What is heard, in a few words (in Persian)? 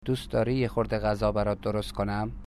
dust dari yeh khordeh, Dari